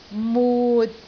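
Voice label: "mut6", Cantonese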